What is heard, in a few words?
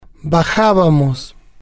"bajabamos", spanish